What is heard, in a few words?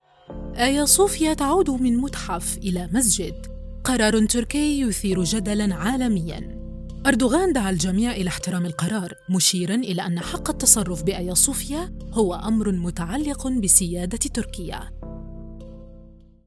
Informative, Narration